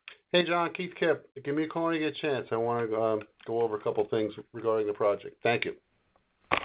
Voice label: Voice Message